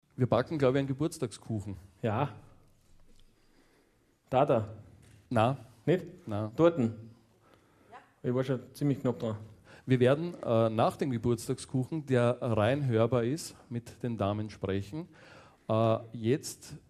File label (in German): Einmoderation; Elektronischer Kuchen